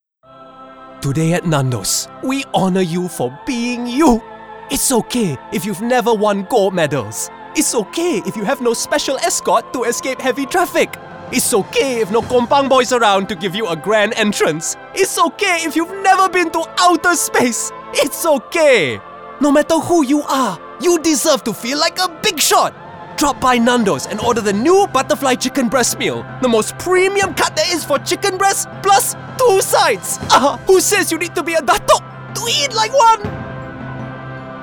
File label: Malaysian Accent; Nandos; Gatoraid